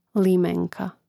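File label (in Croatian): "limenka", Croatian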